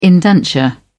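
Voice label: "indenture", British English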